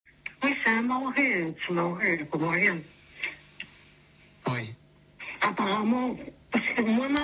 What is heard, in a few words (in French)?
temoignage fillette